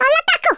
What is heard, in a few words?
FIREBALL, Speech Italian; Worms Armageddon